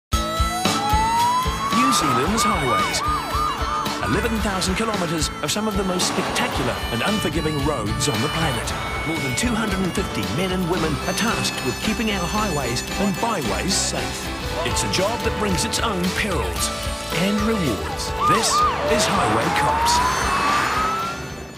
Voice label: narration